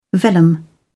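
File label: "vellum", British English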